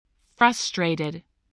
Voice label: "frustrated", voice